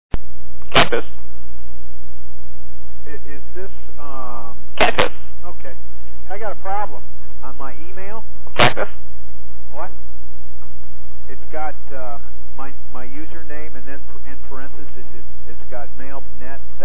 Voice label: Tech Support Incoming Calls, Rather Confused; Cactus; Im Rather Confused

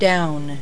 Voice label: "down", voices

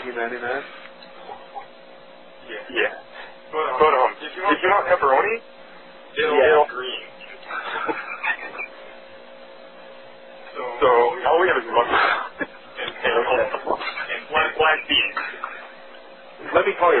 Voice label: Pizza Calls Kids in the Phone

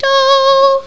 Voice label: FEMALE

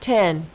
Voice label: "ten", casino

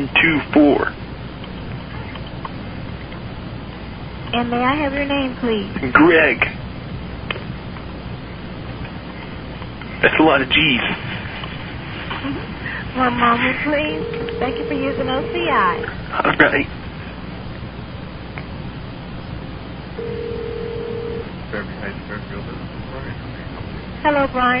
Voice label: collect call to Fairfield Inn; Annoying The Phone Company

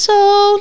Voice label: FEMALE

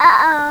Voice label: UH OH; BACKUP

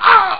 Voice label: man; arcade

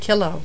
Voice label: "kilo", numbers; VOICES

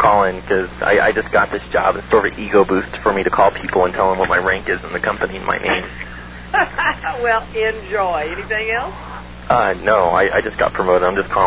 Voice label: corporate security bragging

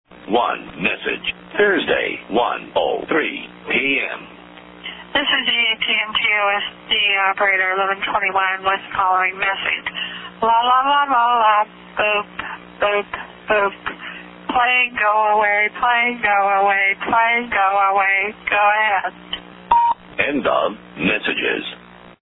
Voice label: Relay Operator; PLA Go Away; Deaf Relay Calls

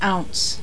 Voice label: "ounce", voices

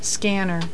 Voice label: "scanner", voices

